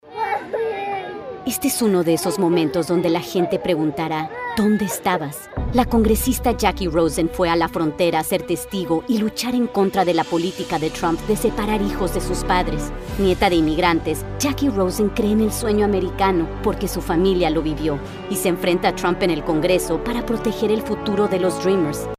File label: Political, Spanish